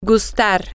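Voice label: to Like, Spanish, female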